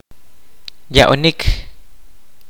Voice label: "give", kaqchikel

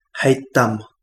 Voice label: pregnant, Kapingamarangi

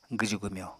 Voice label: "gwju-gwmyo", Apatani; [gɨɉu-gɨmjo] n. dress; dressing style

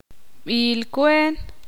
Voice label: ixil; pocos

